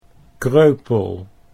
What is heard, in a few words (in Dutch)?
"kreupel", Dutch